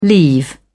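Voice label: "leave off", English, British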